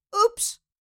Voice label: "oops", Cartoon little child voice